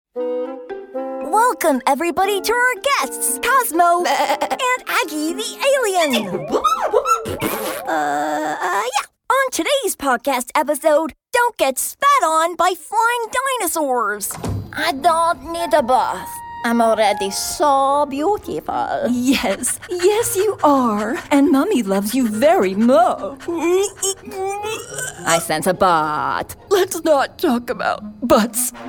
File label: animation, has a versatile voice ranging from lively, bubbly to sm